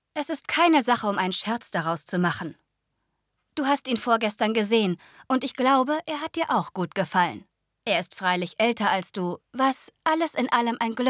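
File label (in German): Hörbuch; effi briest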